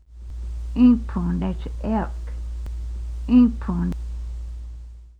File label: elk, quapaw words